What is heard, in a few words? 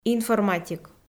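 "информатик", Komi, female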